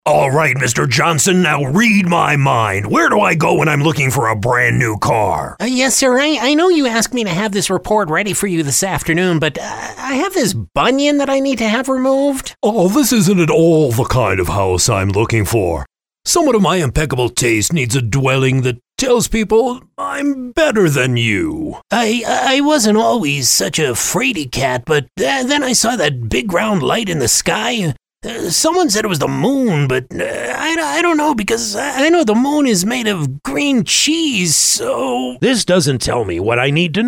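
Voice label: character, male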